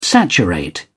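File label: "saturate", British English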